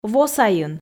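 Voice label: "во сайын", Komi, female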